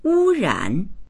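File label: "wu1ran3", Mandarin Chinese; wūrǎn; 污染; 汚染（する）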